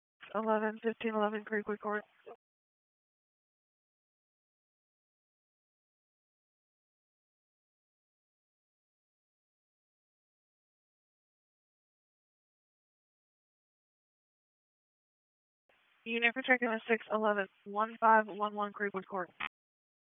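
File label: Police Southwest District Disp, radio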